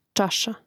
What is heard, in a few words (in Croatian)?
"čaša", Hrvatski